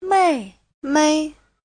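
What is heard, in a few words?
"mèimei", Chinese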